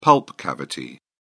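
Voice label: "pulp cavity", British English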